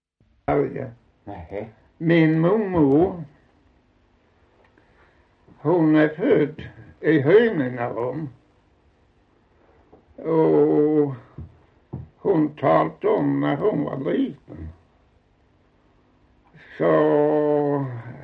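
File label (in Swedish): Osby Skåne, svenska sagor dialekter; Folkminnen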